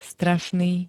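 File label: "strašný", Slovak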